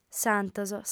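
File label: "santos", female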